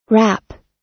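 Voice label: "wrap", US English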